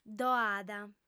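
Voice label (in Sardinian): "ddo'at", female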